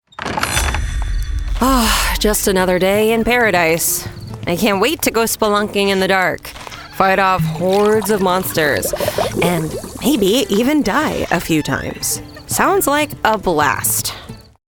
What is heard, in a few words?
sarcastic character; video games animation